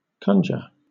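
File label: "conjure", British English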